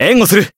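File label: Voice heal, Japanese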